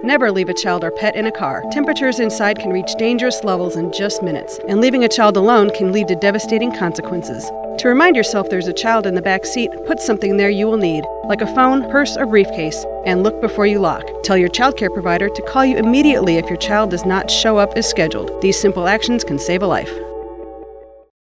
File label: public service announcements; hot cars; DCFS